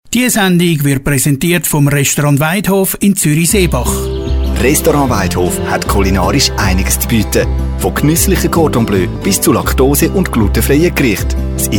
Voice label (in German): Werbung Intro; Waidhof